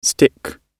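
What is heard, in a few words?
"stick", British English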